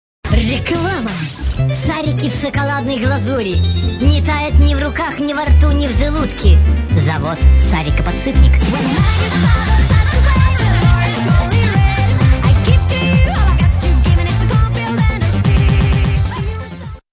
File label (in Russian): Reklama